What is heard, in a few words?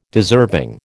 "deserving", American